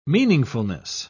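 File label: "meaningfulness", British English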